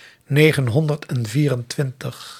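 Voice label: "negenhonderdenvierentwintig", Dutch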